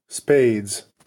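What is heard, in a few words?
"spades", US English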